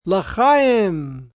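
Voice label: To Life Yiddish M, male, Yiddish 'L'chiam' ('To Life'); Talking Sound Effects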